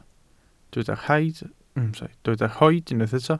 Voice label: "rhoid", welsh